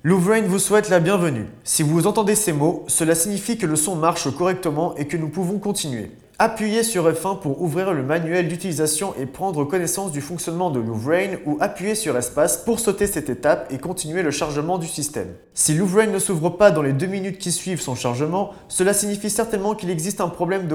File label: French, greeting